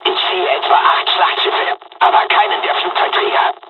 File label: japanese; first wave